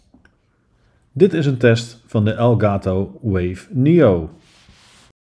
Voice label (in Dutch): Elgato Wave Neo zonder effecten; Review: Elgato Wave Neo